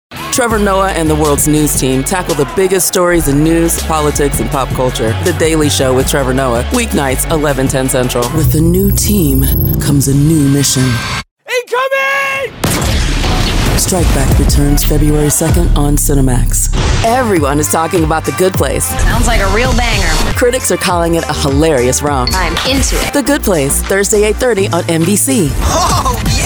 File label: Promo